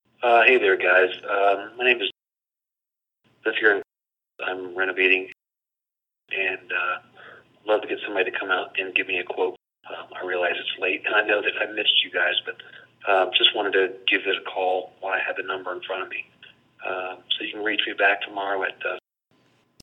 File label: Lead Gen Call